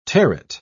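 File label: "terret", English, British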